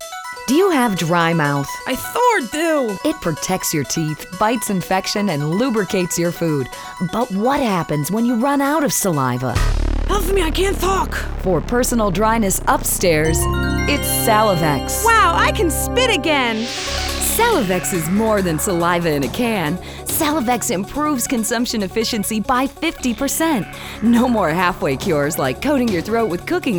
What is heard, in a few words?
Commercial; Volume 6 - Fever 105; Salivex